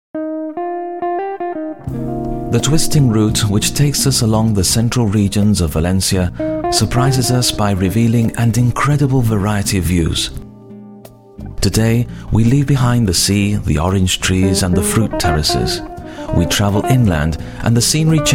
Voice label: english british documentaries